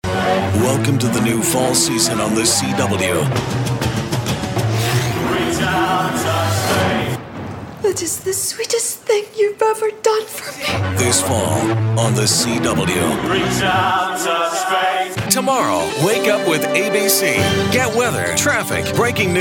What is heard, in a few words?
promo men tv